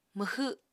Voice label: "mwxw7", Apatani